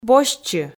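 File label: "босьтчы", Komi, female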